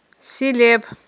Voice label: "силеб", Aghul